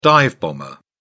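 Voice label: "dive-bomber", British English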